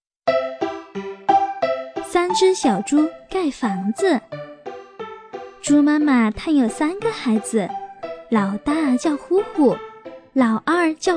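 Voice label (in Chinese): 女故事, 配音; 三只小猪盖房子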